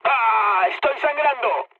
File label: damaged pilot mood low; War Thunder